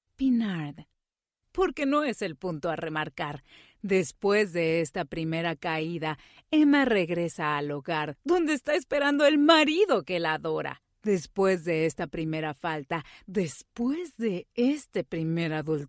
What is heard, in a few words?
spanish mexican narration